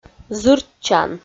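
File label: "зыртчан", Komi, female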